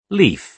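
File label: "leaf", British English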